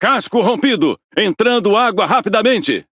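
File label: alarms critical flooding, Brazilian Portuguese; speech; World Of Warships; PT BR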